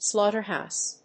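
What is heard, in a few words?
"slaughterhouse", English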